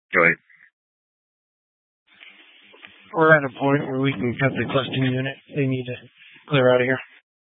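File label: Incident Tac 2, Scanner audio recording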